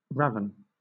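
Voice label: "raven", British English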